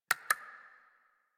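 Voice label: horse start adult, English; Warframe; Duviri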